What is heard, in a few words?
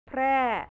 "prae", thai north; แพร่; แผนที่ประเทศไทย